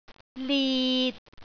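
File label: "lit6", Cantonese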